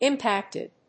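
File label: "impacted", English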